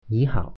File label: "hello", Mandarin